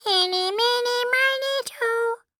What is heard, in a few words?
Cartoon Voice Squeaky; Eeni Minny Mini Toe; AdobeCC